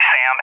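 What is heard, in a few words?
"SAM", English, USA; DCS; Allied Flight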